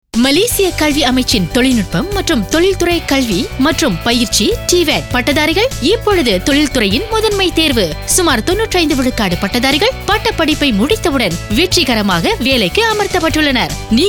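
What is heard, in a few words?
Tamil; KPM; TVET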